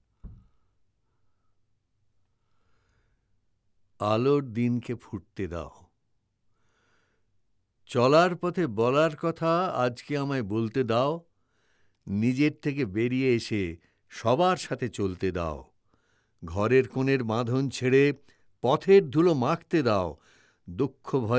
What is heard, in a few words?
recitation; Soumitra Chatterjee